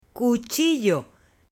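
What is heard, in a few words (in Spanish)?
"CUCHILLO", Spanish, female; AppLenguaje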